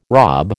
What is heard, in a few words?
"rob", American